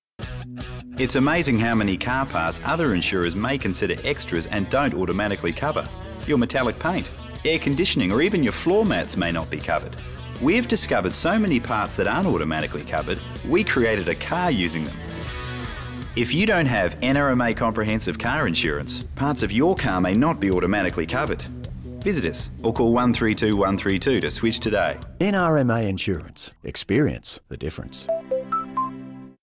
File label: TV Ad; Car Creation NRMA Insurance TV Ad The Reveal